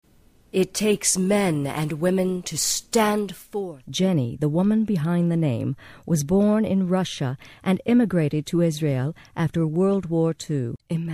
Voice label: mature, female voices for radio commercials